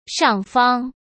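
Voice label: "上方", Mandarin Chinese; hsk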